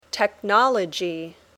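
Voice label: "technology", English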